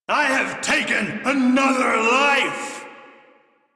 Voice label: Crew Enemy Defeat, Male; Warframe; Railjack Crew; Arbiters Hexis